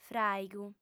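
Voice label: "fràigu", female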